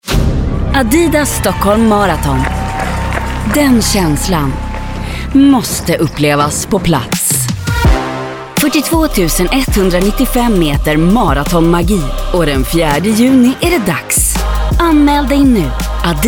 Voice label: swedish commercial

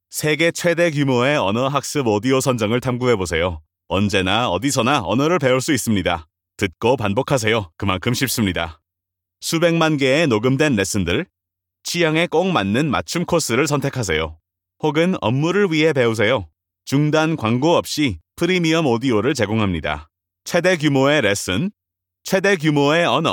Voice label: korean corporate videos

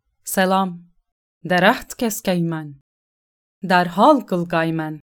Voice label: Turkish video games

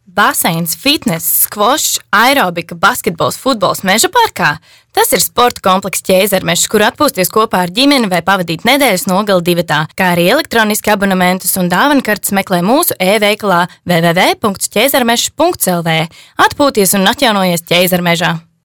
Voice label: latvian commercial

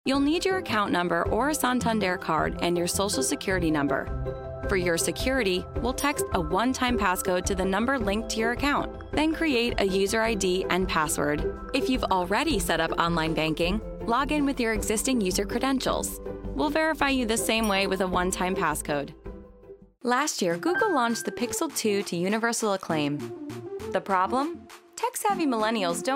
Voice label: english american corporate videos

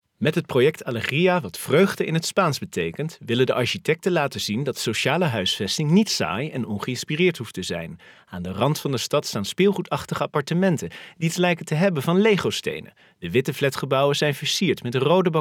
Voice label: dutch documentaries

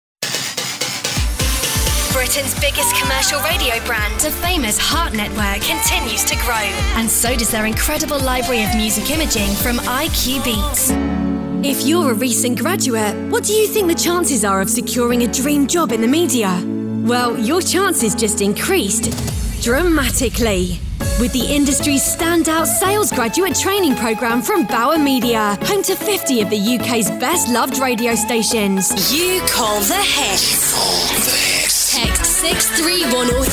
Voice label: English British promos

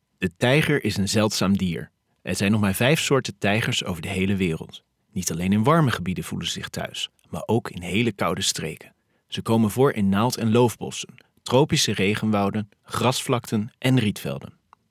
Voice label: dutch commercial; male voiceover in the Dutch language; Native Dutch voice-over from Holland; The Netherlands

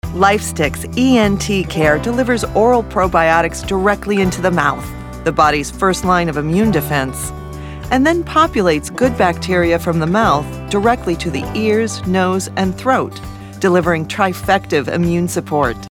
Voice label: english american medical narration

